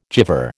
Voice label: "gyver", American